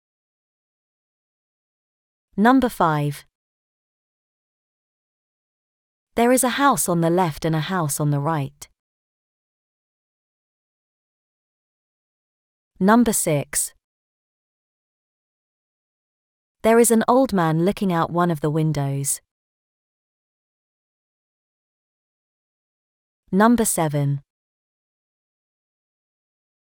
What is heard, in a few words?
uk describing cats